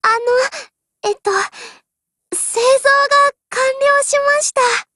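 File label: Japanese, Voice; BUILDOVER; GF Alarm; PPsh41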